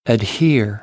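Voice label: "adhere", American English; AmericanBritish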